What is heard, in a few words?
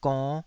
"gonn5", taiwanese